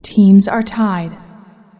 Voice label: teams tied, female; feedback